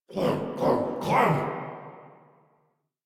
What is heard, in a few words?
Banter; Warframe; Clem; en